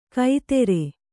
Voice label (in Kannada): "ಕೈತೆರೆ", Kannada